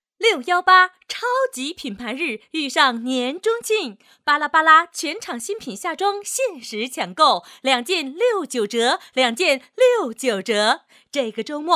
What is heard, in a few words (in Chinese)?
女 广告 激情有力 促销